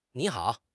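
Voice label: "nǐ hǎo", Mandarin Chinese; HSK1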